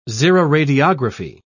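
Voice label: "xeroradiography", British English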